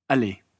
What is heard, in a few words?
"allez", fillers